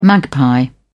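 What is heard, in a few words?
"magpie", British English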